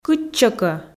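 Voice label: "кытчӧкӧ", Komi, female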